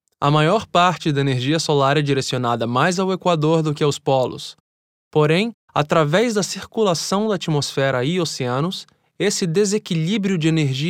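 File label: Brazilian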